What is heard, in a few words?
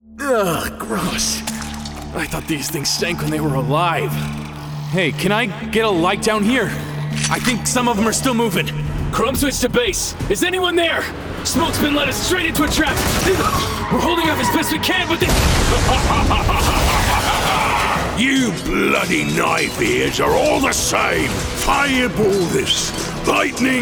Character